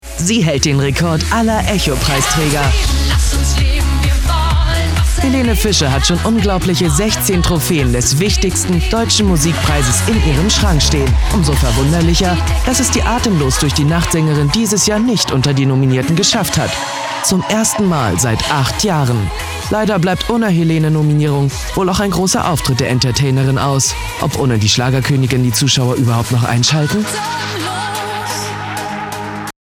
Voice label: tv trailer

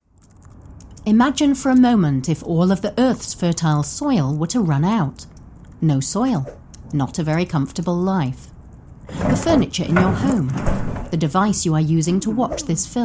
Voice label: voice artist animated documentary; Muttersprache Englisch; Sprecher in Berlin

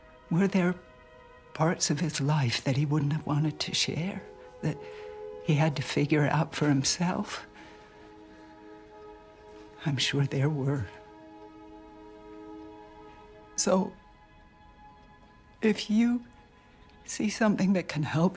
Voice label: emotional us accent

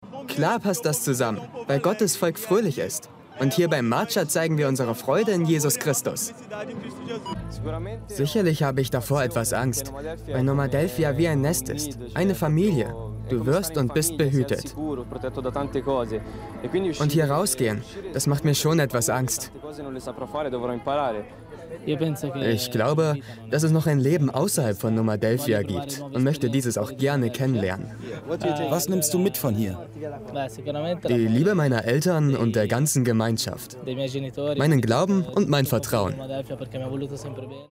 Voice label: voice over; mit christus um die welt

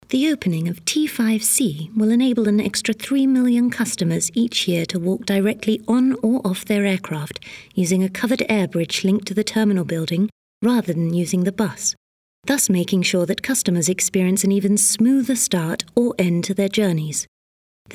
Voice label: corporate

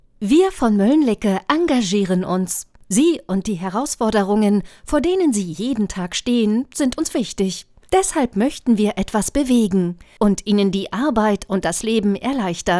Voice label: homestudiosound

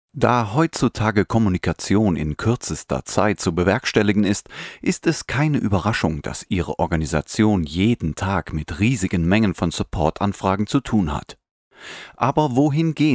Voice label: voice animated stopmotion

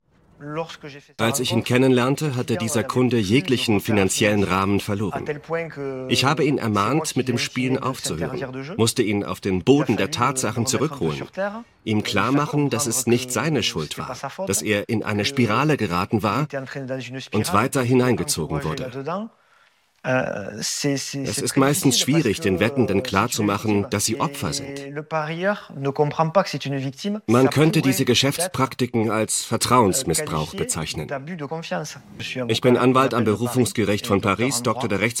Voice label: voice over sportwetten; Muttersprache Deutsch; Sprecher in Stuttgart

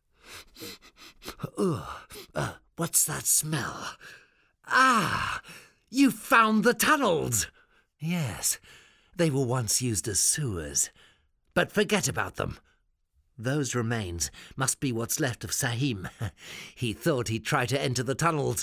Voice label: two worlds ii englisch; Muttersprache Deutsch, Englisch, Englisch/UK

